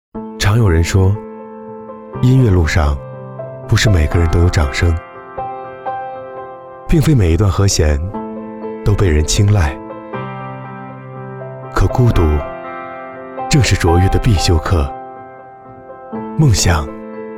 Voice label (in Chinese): 宣传 励志时尚